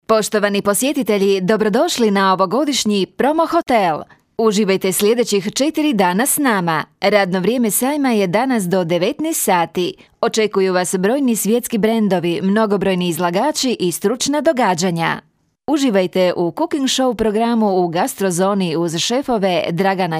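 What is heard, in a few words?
Croatian female; lektoring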